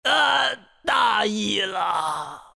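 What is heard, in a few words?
death, Chinese; dota2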